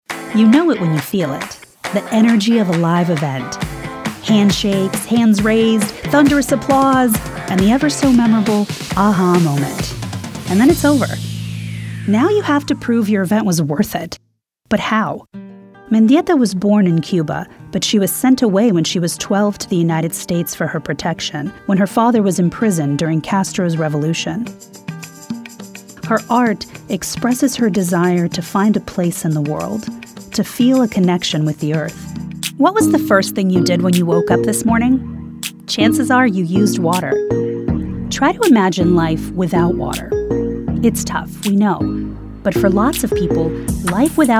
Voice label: Narration